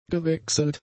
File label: "gewechselt", German; adjectives